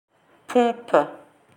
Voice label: "pompes" French; slang words